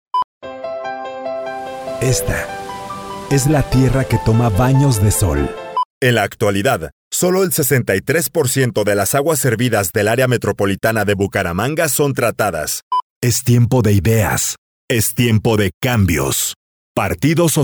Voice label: spanish latin american political ads

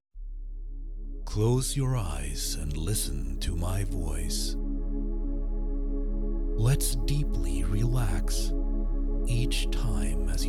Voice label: english narration

